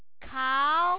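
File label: "kao2", Chinese Mandarin, Third Tone, A tone that begins in the middle level, goes down, bounds up and ends up with a relatively higher pitch.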